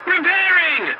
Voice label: voice message repairing, english; War Thunder